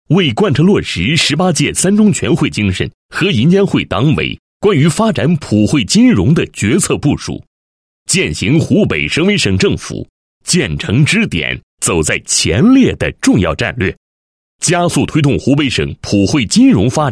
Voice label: 男 解说 金融服务网格化 城乡金融一体化发展

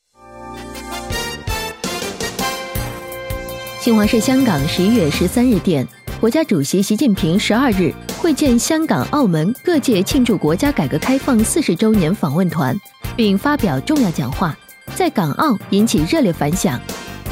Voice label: 女 新闻快讯

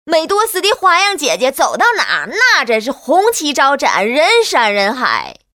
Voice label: 女 模仿, 国语女声配音; 宋丹丹

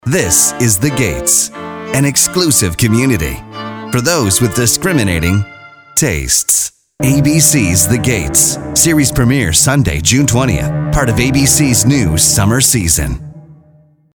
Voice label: 外籍男 电视广告; The Gates

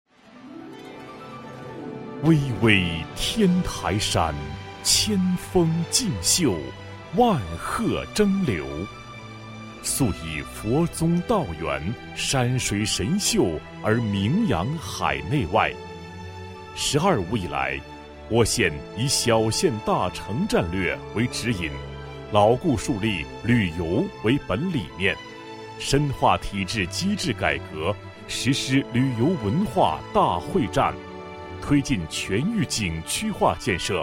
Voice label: 男 专题, 国语男声配音 磁性; 天台山旅游工作总结

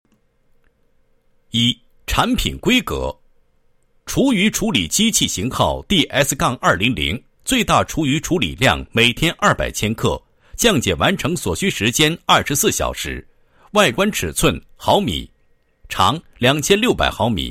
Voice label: 男 产品介绍; 厨余处理机

